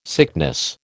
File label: "sickness", American